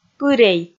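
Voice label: "пырей", Komi, female; kpv audio dict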